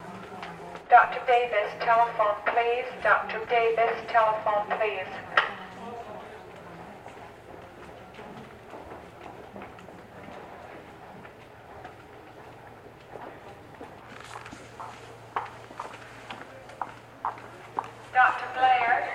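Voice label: Hospital Pa; Short Announcement, From Down Hallway.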